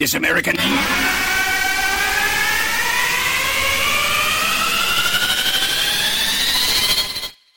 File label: rage soldier; missingteam